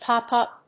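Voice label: "pop up", English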